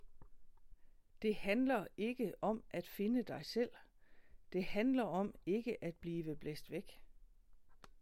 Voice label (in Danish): De Hajler Ae Om Dansk